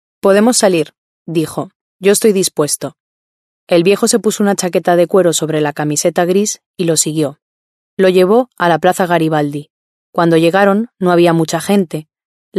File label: spanish female